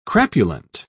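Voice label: "crapulent", English, British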